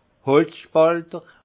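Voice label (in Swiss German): "holzspalter", alsace Haut-Rhin; vin; fend le bois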